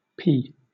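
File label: "pee" English, British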